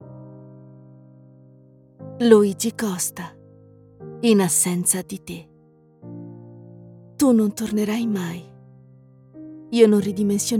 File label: italian promos